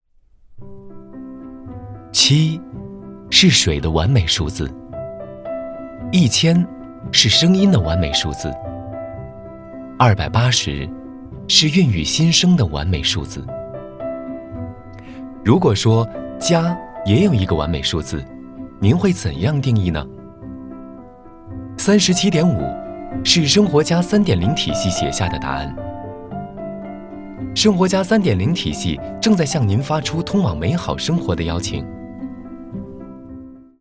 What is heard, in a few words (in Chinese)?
宣传片 企业 时尚, 男; 生活家